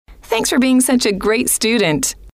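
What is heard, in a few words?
thanks For Being Such A Great Student, female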